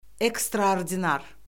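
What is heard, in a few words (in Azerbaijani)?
"ekstraordinar", Azerbaijani; Orfoqrafiya Lüğəti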